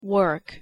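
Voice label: pronunciation "work", English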